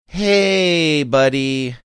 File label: drawn out hey buddy